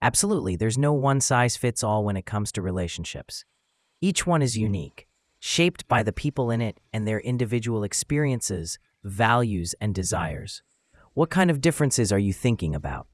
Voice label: emotion